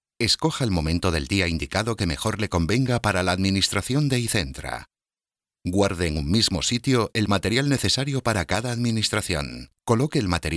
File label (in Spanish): narración